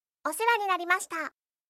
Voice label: anime, anime character farewell voices sayonara phrases; anime characters say goodbye